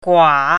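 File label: "gua3", Chinese pinyin, third tone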